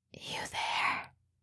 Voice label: asmr girl you there; ringtone; asmr ringtones